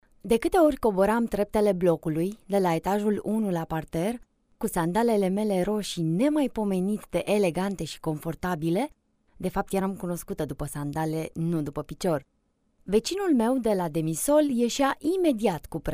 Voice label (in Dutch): naratie